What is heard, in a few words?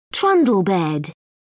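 "trundle bed", British English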